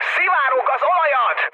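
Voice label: Hungarian damaged oil mood high, speech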